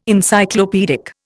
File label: "Encyclopaedic", Indian